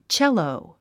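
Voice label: "cello", English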